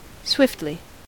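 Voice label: "swiftly", American English; pronunciation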